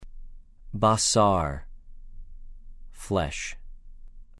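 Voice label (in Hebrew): "בָּשָׂר", Hebrew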